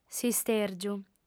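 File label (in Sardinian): "s'istèrgiu", female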